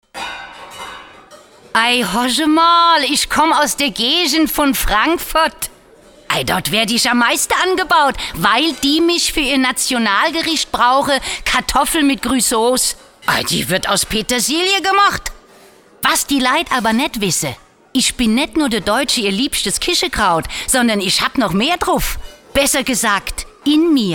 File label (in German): hessisch, frauen; sprecher; BUGA; Peterl